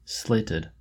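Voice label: "slated" English, US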